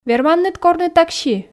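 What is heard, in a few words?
"верманныд корны такси", Komi, female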